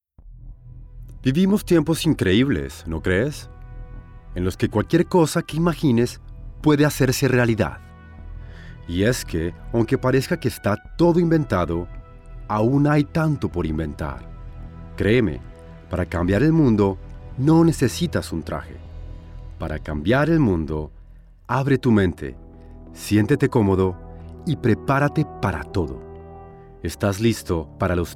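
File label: male latin american colombian comercial; ideasonora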